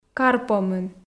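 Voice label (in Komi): кар помын, Komi, female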